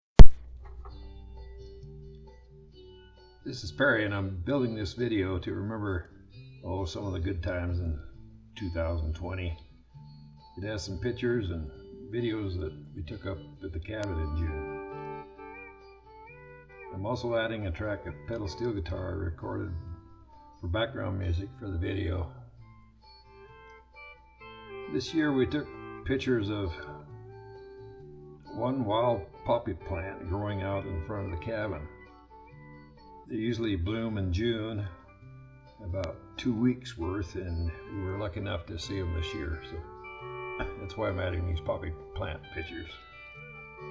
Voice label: Narration